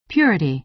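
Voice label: "purity", American English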